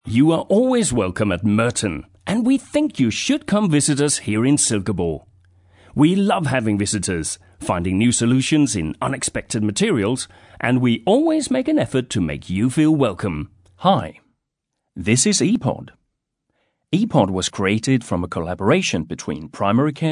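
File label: Danish English, male, accent of denmark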